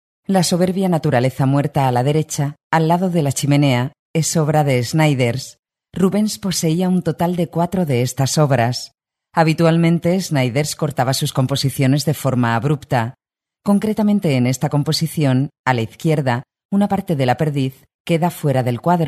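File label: Spanish EU female Narration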